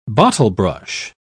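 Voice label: "bottlebrush", British English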